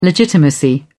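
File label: "legitimacy", British English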